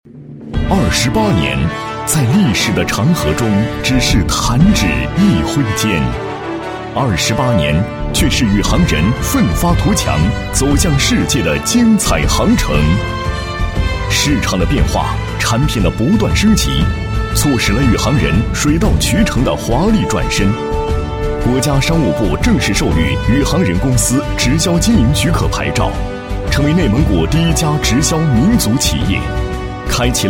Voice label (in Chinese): 男 企业 化妆品公司宣传介绍; 宇航人化妆品公司宣传介绍